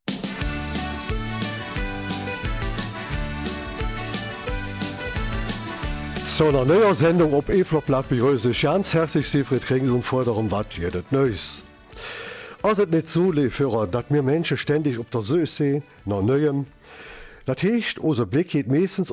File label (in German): Eifeler Mundart; Kleines Archivarium in der Clara-Viebig-Schule in Manderfeld